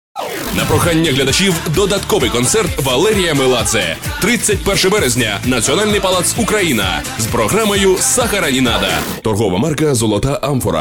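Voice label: Ukrainian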